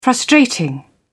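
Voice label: "frustrating", British English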